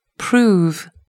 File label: "prove", English